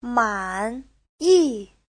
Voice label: "man3 yi4", chinese; Giáo trình Hán ngữ